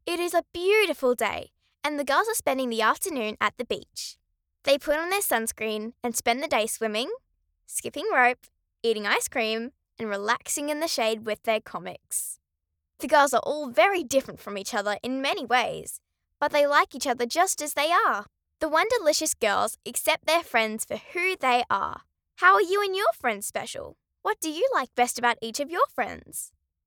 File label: Girls Narrator Test Read; Wonderlicious